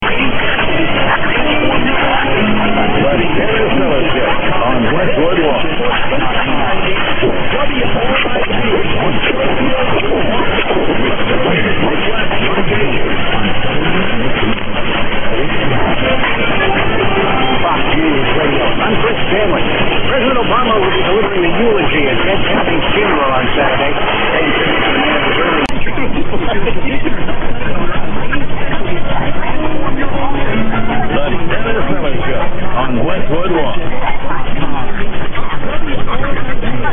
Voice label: usb then lsb; 1390; unid wegp

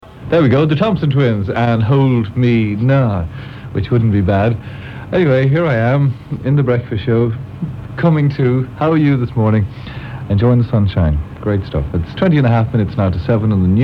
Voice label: announcements; nova 819am; first day 729

